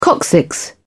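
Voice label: "coccyx", British English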